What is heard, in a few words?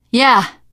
yes, teengirl; survivor voice